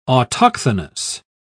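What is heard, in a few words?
"autochthonous", British English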